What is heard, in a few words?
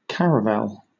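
"caravel" English, British accent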